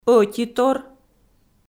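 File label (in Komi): "ӧтитор", Komi, female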